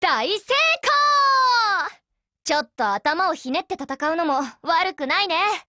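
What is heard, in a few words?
Japanese, Voice; GF Alarm; PP19Mod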